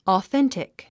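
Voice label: "authentic", English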